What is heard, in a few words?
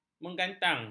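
"menggantang", Malay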